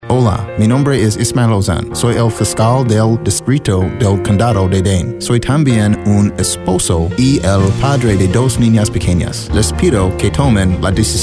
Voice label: Spanish PSA; DANE COUNTY DA OFFICE